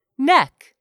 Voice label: "NECK", English; 3000 words